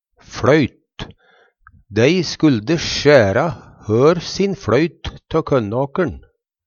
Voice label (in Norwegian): "floyt", Numedalsmål; Dei skulde skjæra hør sin fLøyt tå kønnåker'n.